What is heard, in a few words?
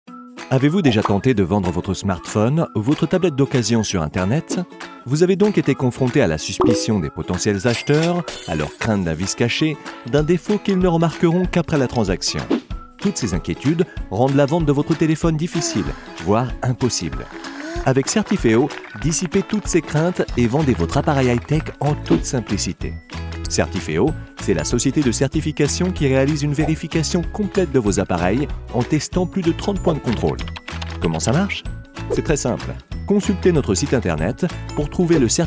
explainer; certifeo; French voice-over